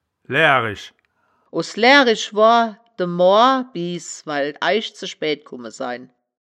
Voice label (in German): "laehrisch", wittlich